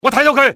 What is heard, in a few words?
see him, cantonese male; XBOX; enemy